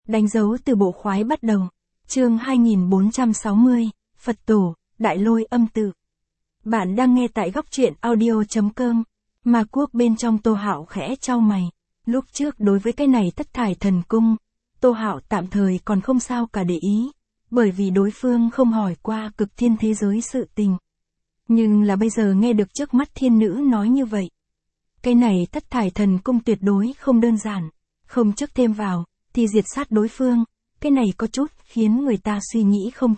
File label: vi-VN Standard; danh dau tu bo khoai bat dau